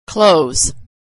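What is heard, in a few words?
"clothes", American English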